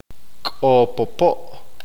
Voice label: "sapo", Q'eqchi'